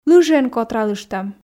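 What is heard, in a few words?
Komi sentences, female; лыжиӧн котралыштам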